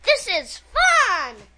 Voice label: this is fun, Children